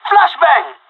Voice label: flashbang, pirate; vo; Counter Strike Model Pack; CS:GO